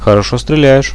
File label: nice shoot, radio bot; Reallite